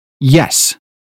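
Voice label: "yes", British phonetics; 英语音标发音（剑桥版）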